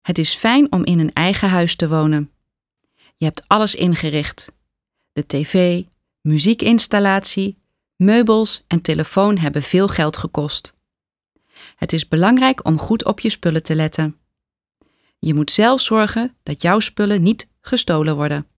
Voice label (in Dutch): e-learning voorbeeld